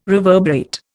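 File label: "reverberate", Indian English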